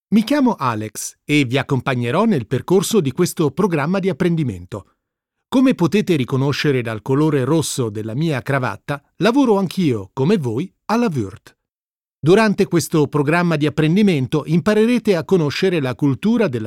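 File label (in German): Italienisch, Kommentar Neutral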